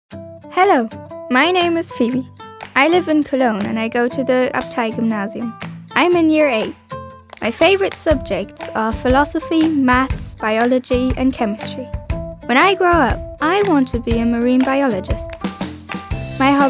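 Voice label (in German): Selbstvorstellung, English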